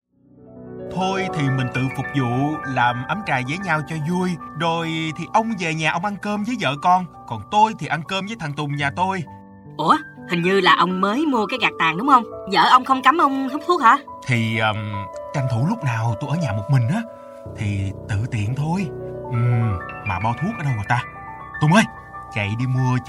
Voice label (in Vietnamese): giọng nam; phòng chống tác hại của thuốc lá; tam guong cho tre